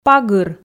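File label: "пагыр", Komi, female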